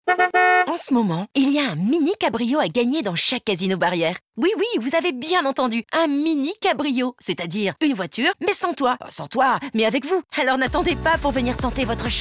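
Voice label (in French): Spot Radio, voix off féminine; Casino Barrière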